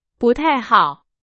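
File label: Chinese, example sentence; hsk2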